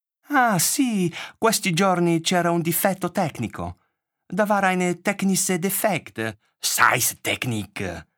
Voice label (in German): italienischer akzent hoerbuch; audible